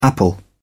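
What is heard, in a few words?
"apple", British English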